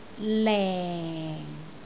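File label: "leng4", Cantonese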